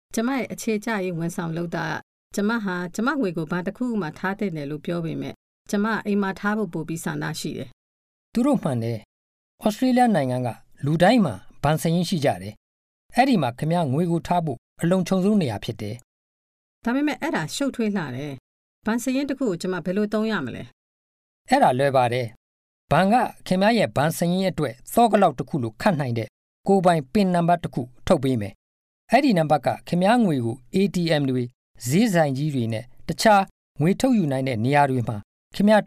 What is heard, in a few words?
banking burmese